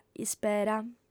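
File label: "ispera", female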